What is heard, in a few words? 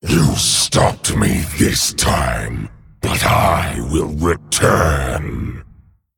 Male Dragon Concede